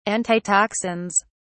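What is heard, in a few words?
"antitoxins", British English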